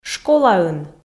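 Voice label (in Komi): "школаын", Komi, female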